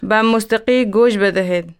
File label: Dari Listen to music